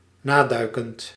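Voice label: "naduikend", Dutch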